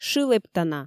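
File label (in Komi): "шы лэптана", Komi, female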